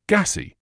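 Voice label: "gassy", British English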